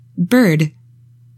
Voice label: "BIRD", English; 3000 words

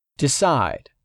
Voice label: "decide", English; 3000 words

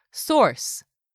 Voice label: "source", english; 3000 words

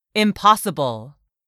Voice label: "impossible", English; 3000 words

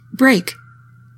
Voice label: "BREAK", English; 3000 words

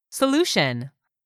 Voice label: "solution", english; 3000 words

